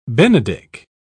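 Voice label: "benedick", English, British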